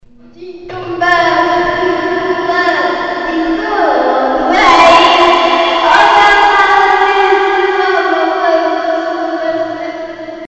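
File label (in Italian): La voce dentro a una grotta (effetto eco), Frase modificata; I bambini, con l'aiuto dell'insegnante, registrano e rielaborano la propria voce al comput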